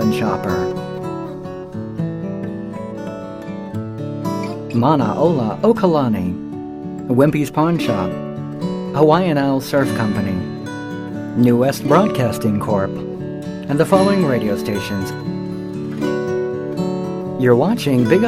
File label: sponsor promo